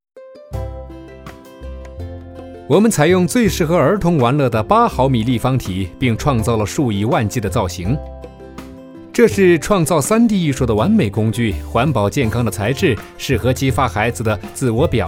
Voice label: 男, 广告, 产品介绍

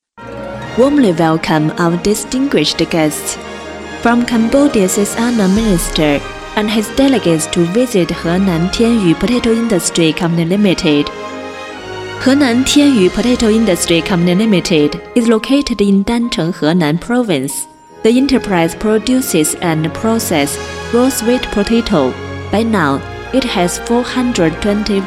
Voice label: 女 英文专题

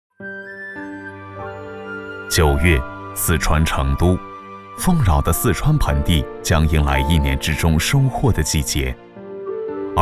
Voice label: 男 舌尖感觉风格; 创新技术决赛

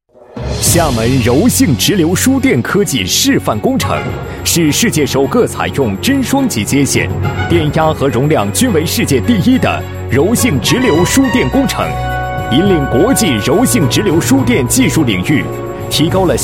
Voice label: 男 宣传片 检修公司柔直换流站宣传片

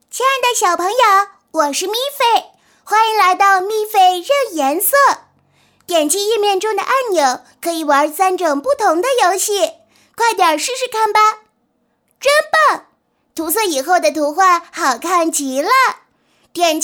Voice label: 女99号 游戏 女童语音需求, 国语女声配音

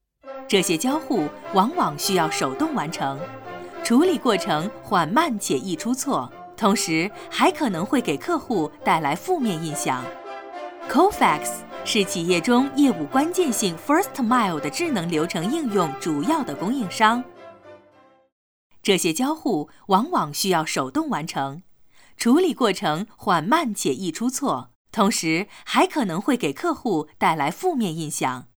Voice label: 女, 多媒体课件, 智能流程应用

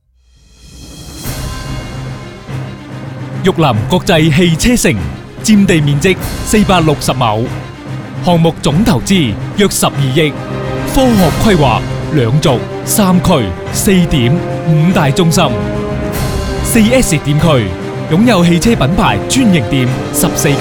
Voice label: 粤男; 玉林国际汽车城

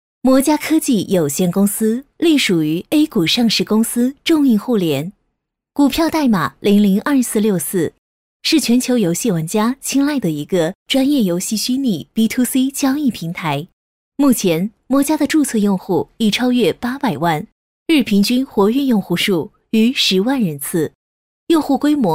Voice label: 女 科技专题; 摩伽科技

